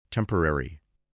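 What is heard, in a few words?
"temporary", English word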